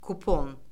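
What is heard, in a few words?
"kupon", Azerbaijani; Orfoqrafiya Lüğəti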